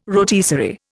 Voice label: "rotisserie", Indian

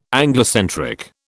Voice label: "Anglocentric", British

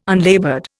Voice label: "unlaboured", Indian

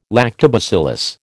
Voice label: "lactobacillus", American

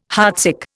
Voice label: "heartsick", Indian

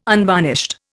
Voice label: "unvarnished", Indian

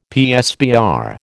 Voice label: "PSBR", American

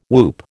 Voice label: "whoop", American

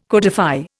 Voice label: "codify", Indian

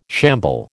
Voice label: "shamble", American

